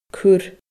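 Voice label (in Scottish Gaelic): "cur", Scottish Gaelic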